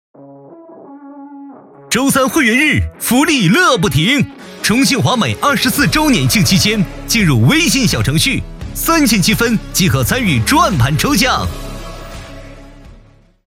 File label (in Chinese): 线上 促销